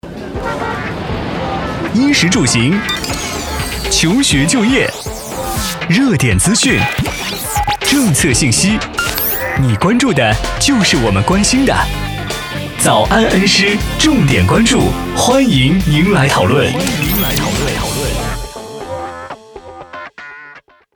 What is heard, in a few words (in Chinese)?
男 电台片花; 早安恩施-重点关注NEW